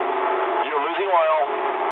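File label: damaged oil mood low, speech English; War Thunder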